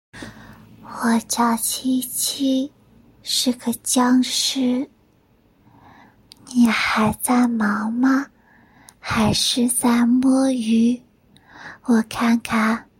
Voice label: 萝莉音

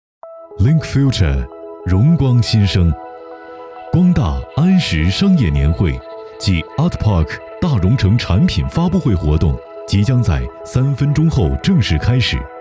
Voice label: 专题神秘品质中英 银行; 光大银行

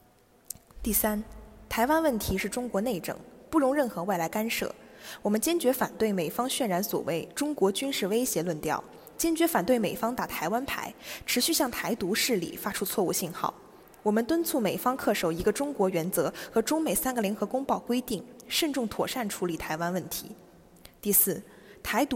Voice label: 新闻稿

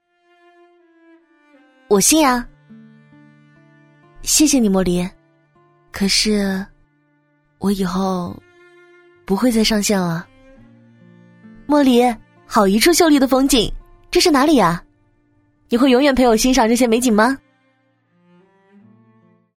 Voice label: 女学生

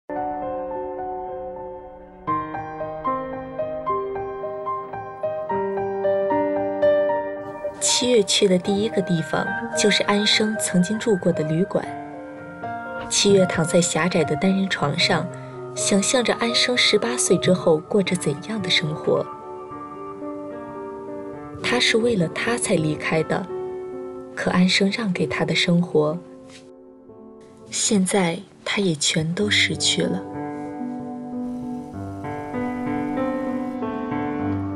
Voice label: 电视剧配音

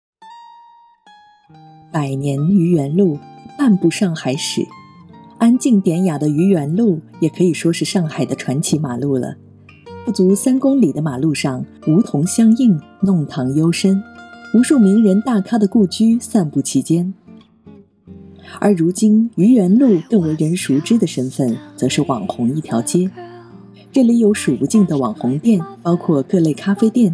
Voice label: 自媒体配音

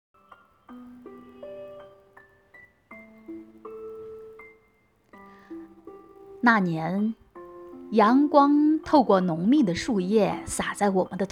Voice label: 电台小模拟

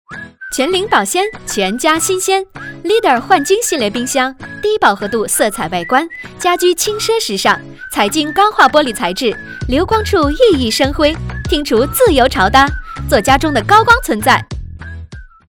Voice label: 广告时尚活泼风格; 幻晶冰箱

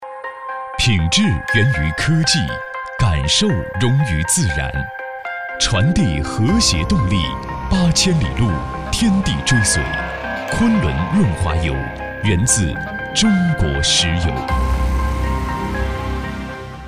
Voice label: 配音; 昆仑润滑油合成版

